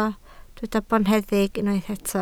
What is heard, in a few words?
"boneddig", Welsh